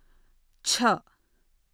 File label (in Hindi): "छ" "cha", Hindi; hindi script